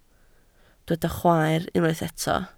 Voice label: "chwaer", Welsh